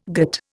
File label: "gîte", Indian